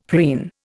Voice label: "preen", Indian; Dictionary